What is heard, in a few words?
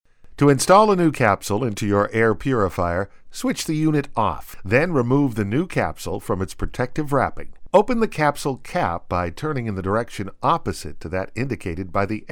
Dry Narration